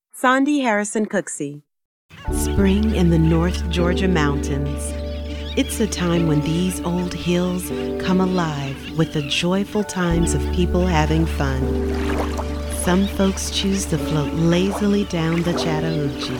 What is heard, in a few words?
Narration